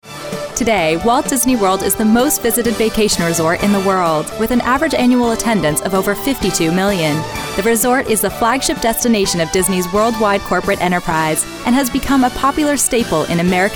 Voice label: Narration

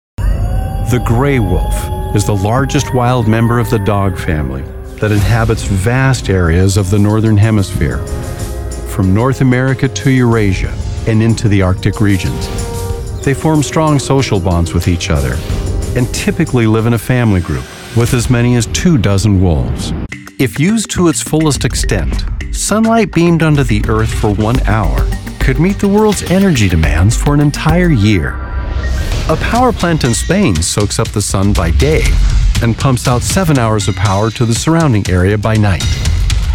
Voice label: TV narration

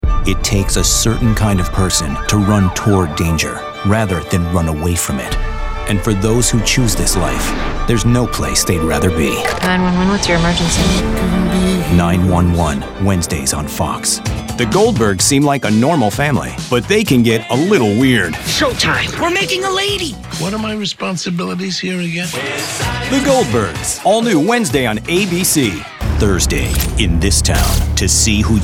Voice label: NETWORK PROMO

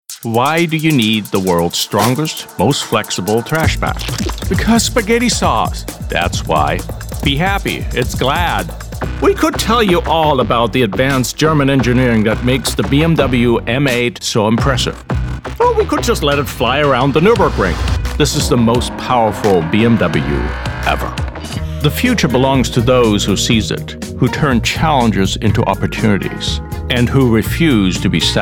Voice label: English Commercial

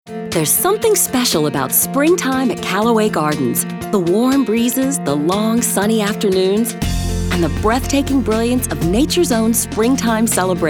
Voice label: commercial

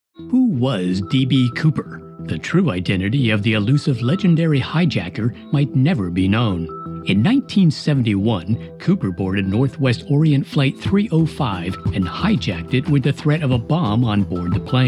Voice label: Narrative

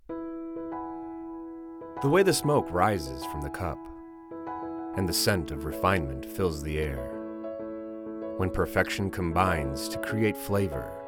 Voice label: Emotional Uplifting Commercial

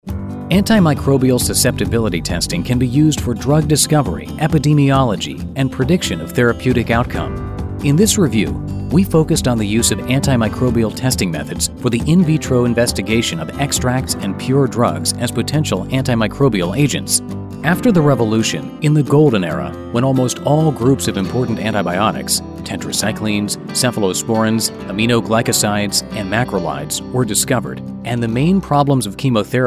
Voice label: Medical Narration

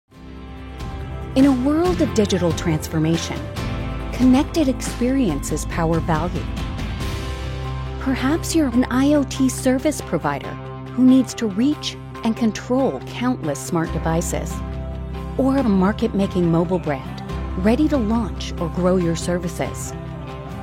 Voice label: Tech Narration; Pareteum

